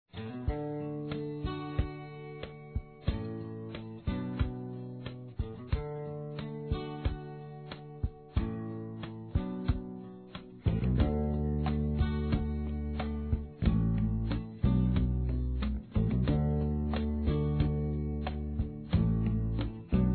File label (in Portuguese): Aniversário Pai Duas Vozes; Aniversários; Aniv Familiar